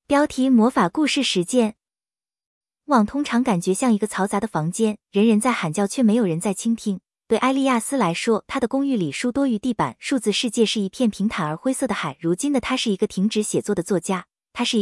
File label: chinese; Blooper